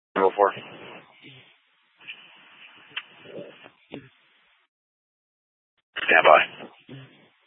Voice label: Fire Dpts VHF 154.800 Patch, scanner